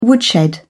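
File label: "woodshed", British English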